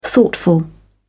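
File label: "thoughtful", British English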